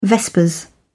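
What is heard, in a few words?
"vespers", British English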